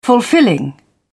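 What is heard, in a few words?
"fulfilling", British English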